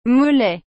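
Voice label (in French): "mollet", French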